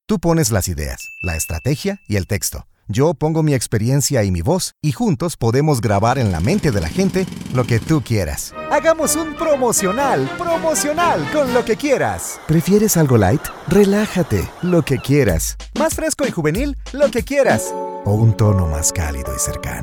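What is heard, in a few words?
corporativo, Costa Rican Spanish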